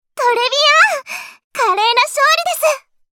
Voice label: Voice victory